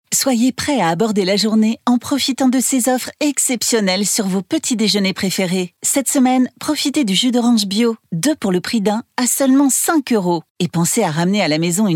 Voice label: Casual Decontrate

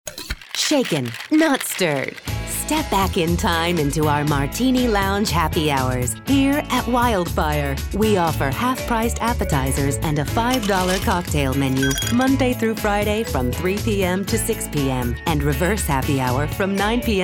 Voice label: On Hold Restaurant; Wildfire

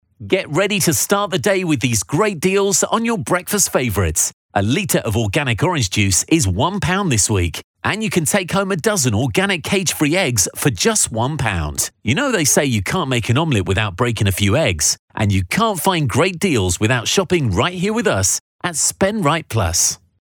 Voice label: Casual Conversational

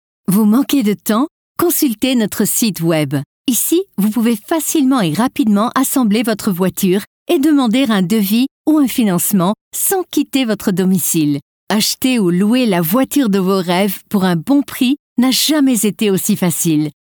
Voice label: Walloon French Professional

